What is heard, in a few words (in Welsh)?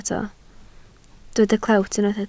"clewt", Welsh